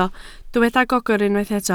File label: "gogr", Welsh